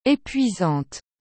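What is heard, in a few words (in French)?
"epuisante", French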